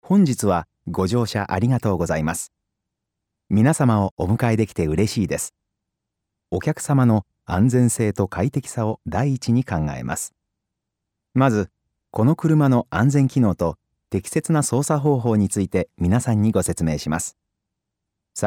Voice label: Passenger Safety Instructions japanese; bus